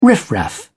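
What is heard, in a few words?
"riff raff", British English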